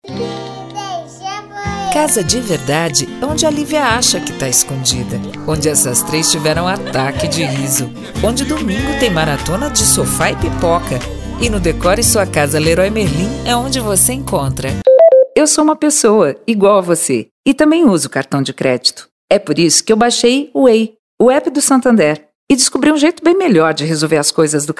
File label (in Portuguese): repertorio natural